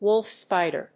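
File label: "Wolf spider", English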